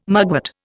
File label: "mugwort", Indian